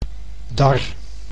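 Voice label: "dar", Dutch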